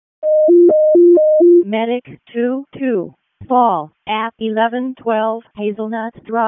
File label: WC EMS ALERT, p25; wake